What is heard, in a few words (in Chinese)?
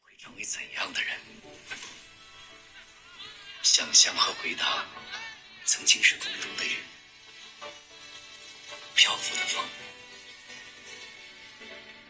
宣传片; 心向未来